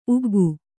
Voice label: "ಉಗ್ಗಿ", Kannada

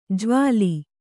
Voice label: "ಜ್ವಾಲಿ", Kannada

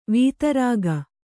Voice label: "ವಿದಾರಕ", Kannada

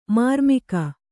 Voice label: "ಮರ್ಮಿಗ", Kannada

